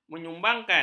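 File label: "menyumbangkan", Malay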